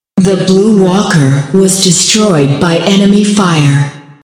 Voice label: female ally blue walker destroyed, announcer female; patron